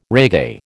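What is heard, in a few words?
"reggae", American English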